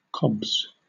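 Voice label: "cobs", British English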